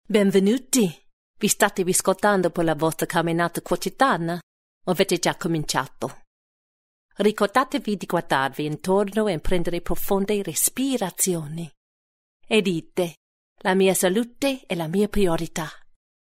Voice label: Italian